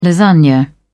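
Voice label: "lasagne", British English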